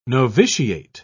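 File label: "noviciate", British English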